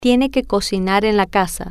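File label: Tiene Que Cocinar En Casa, Spanish, female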